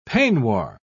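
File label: "peignoir", English, British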